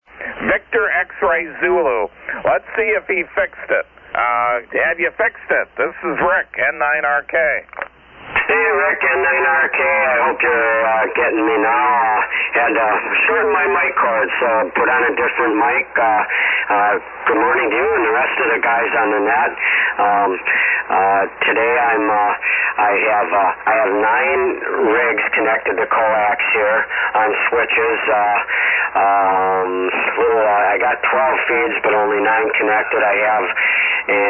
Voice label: Yaesu FT-101ZD & D-104 Mic; Hustisford, Wi.

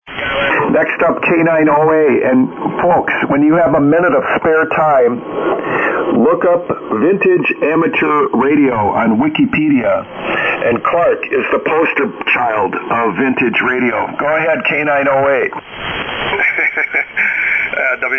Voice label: Collins 32S3 75S3 EV-664 Mic & 30L1 Amp; Madison,Wi.

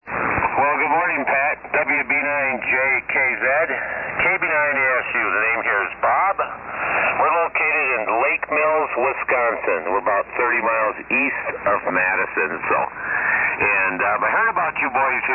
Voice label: Heathkit HW-12A Ameritron Amp; Lake Mills, Wi.

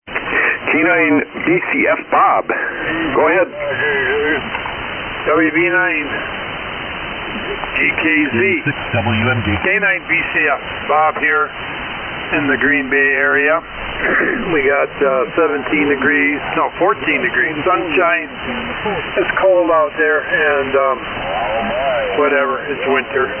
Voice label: Kenwood TS-520S; Greenleaf, Wi.

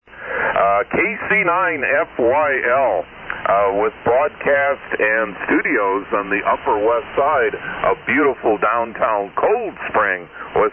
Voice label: S-Line & 10-D Mic; Fort Atkinson, Wi.

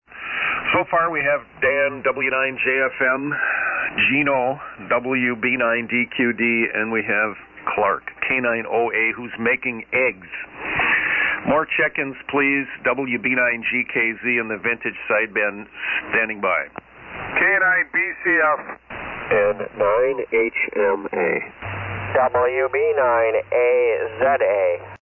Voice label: SSB Net Check Ins

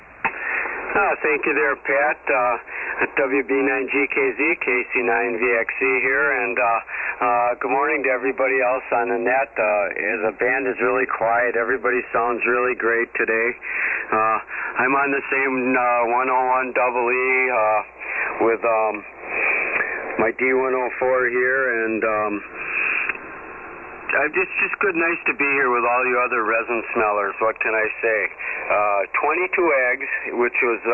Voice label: Yaesu FT-101EE & Henry 4K Amp; Hustisford, Wi.

